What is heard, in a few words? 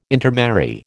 "intermarry", American